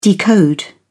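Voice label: "decode", British English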